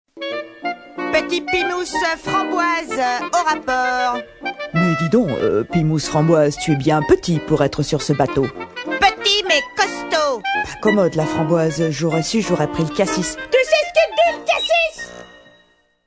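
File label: 3 Characters, Cartoons, French; Petit Pie Mousse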